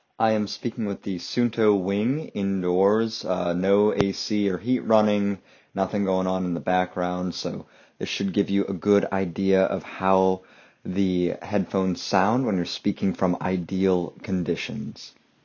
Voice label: microphone non standardized ideal; Suunto Wing

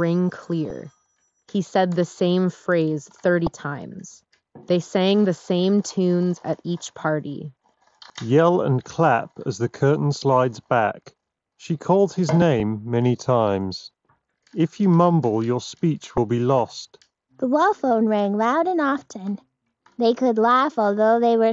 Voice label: Office microphone sample; Razer Barracuda X Chroma

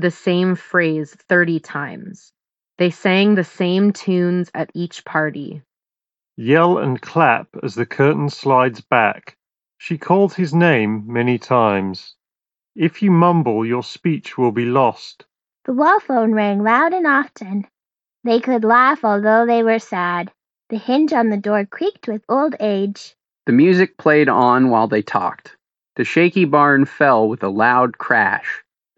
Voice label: mic ideal; sony linkbuds fit

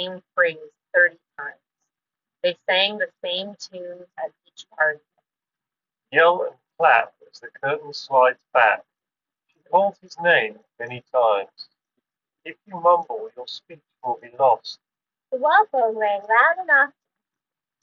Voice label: Wind microphone sample; Nothing Ear

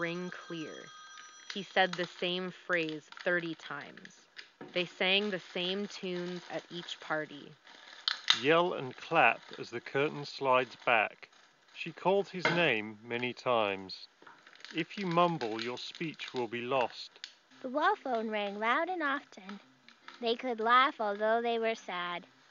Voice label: Office microphone sample; HyperX Cloudbuds

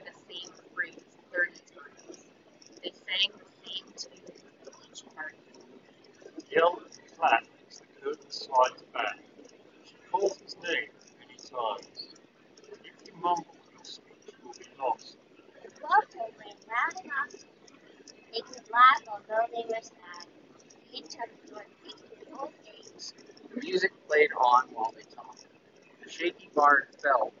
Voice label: JLab JBuds Air Pro Wind microphone sample

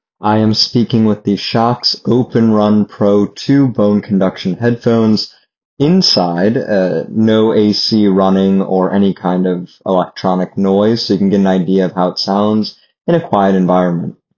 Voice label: microphone indoor; Shokz openrun pro 2

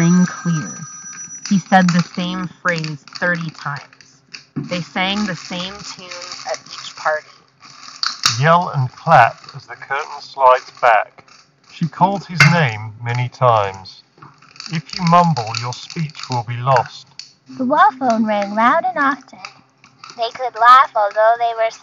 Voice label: 1More Colorbuds2 Office microphone sample

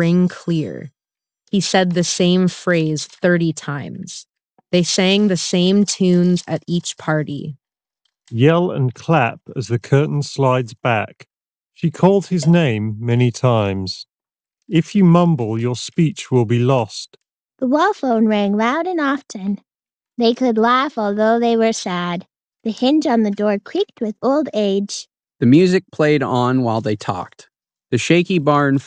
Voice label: Office microphone sample; Audeze Maxwell BoomDongle

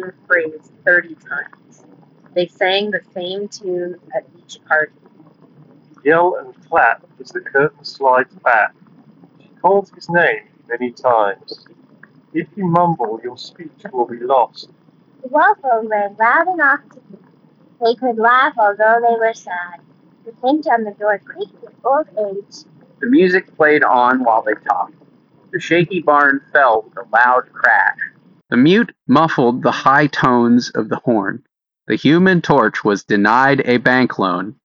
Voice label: Ear Open Wind microphone sample

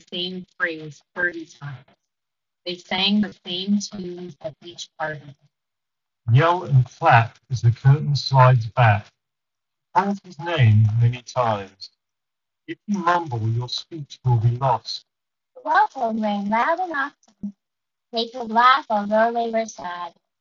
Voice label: Sony WF-1000XM5 Wind microphone sample; Pre-release

